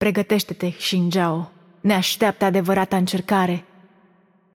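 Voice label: Romanian game outro; bloom minigame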